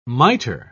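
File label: "miter", British English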